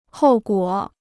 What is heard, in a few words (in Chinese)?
"后果", Chinese